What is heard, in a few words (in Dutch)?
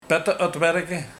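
"Pette otwerkerke", dialect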